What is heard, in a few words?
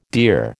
"dear", American